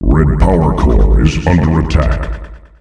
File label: Red Powercore under attack, announcer male; Unreal Tournament; ut2004